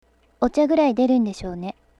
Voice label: dark girl